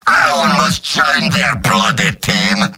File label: jeers; demoman; mvm